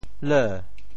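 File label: "leu2", Teochew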